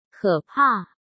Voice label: "可怕" Chinese

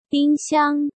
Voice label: "冰箱" Chinese

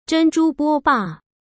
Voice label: "珍珠・波霸" Chinese

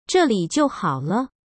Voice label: "這裡就好了" Chinese

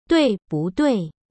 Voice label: "對 不對" Chinese; ドゥエ / ブードゥエ; duì / duì; 正しい / 正しくない

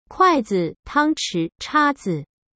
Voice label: "筷子 湯匙 叉子" Chinese

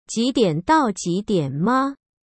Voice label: "幾點到幾點嗎" Chinese

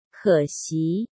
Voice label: "可惜", Chinese

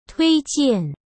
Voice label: "推薦", Chinese

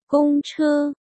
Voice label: "公車" Chinese

